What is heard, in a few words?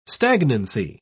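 "stagnancy", British English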